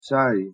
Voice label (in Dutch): "τσάι", Grieks; thee-in-andere-talen